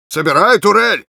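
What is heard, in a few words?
Engineer auto building sentry, Russian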